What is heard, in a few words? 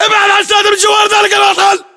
order cover doorway, battle chatter, english